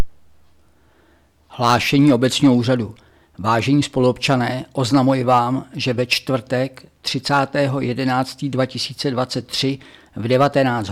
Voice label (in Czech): rozhlas preruseni provozu kompostarny